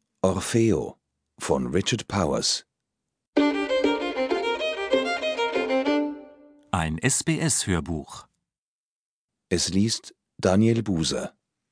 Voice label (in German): Hoerbuch Sprecher; Orfeo; Richard Powers; SBS Daisy Hoerbuch; bibliothek fuer blinde seh und lesebehinderte